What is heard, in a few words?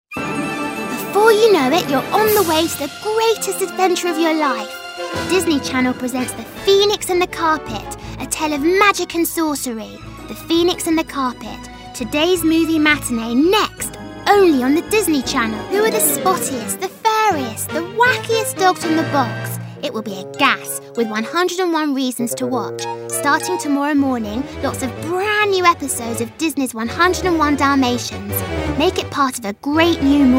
promo